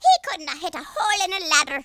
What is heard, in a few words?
missed, Irish; speech